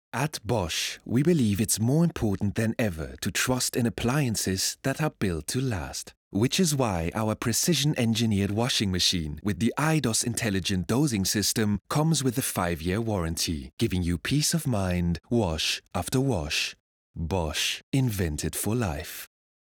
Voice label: English German accented TV Commercial; Bosch

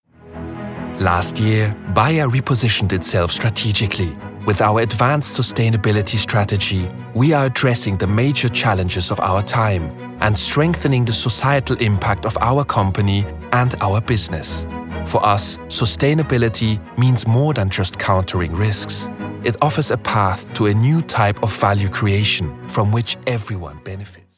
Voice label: Corporate English German Accented; Bayer

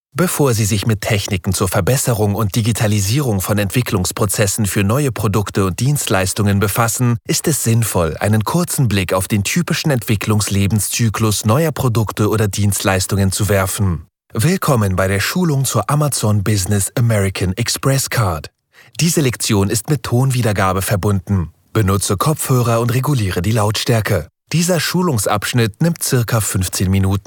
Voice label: German E Learning Training

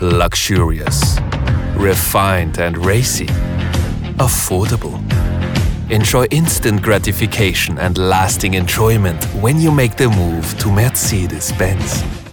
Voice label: English German Accented Commercial; Mercedes-Benz

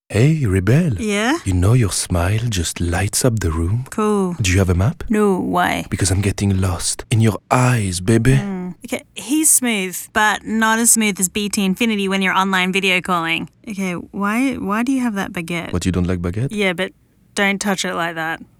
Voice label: English French Accented commercial; BT

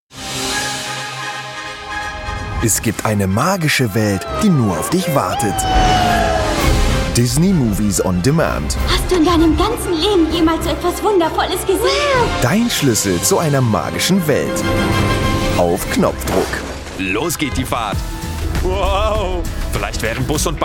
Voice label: German Trailers Promo

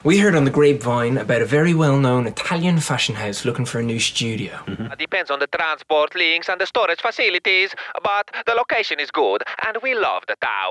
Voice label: English Italian Accented Radio Drama; Supertower

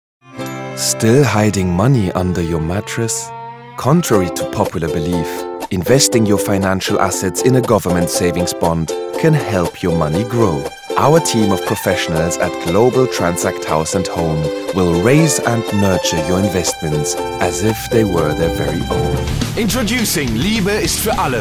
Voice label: English German Accented Commercial Promo

